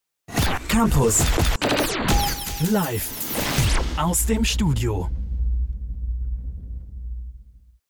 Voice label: LIVE Studio Jingle; LOFT VOICES